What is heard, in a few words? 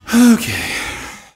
sigh ok; ltt